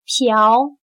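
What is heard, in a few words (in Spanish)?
"瓢", chino mandarin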